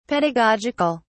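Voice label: "pedagogic(al)", English, British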